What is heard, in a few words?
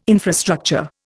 "infrastructure", Indian